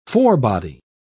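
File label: "forebody", en, uk British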